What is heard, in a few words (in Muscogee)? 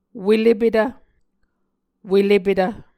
"welepetv", Muscogee